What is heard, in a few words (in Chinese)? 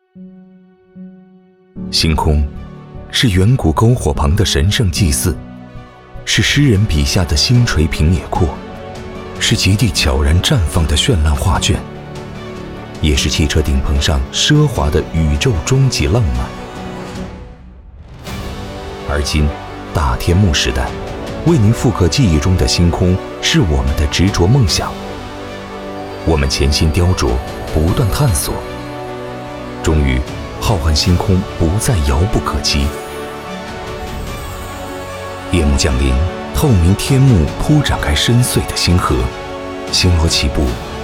男 科技感